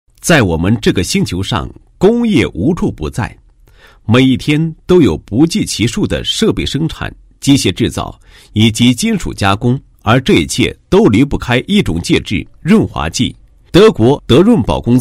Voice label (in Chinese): 男 企业; 滟德润宝 生产工业用介质和化工产品